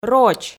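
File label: "роч", Komi, female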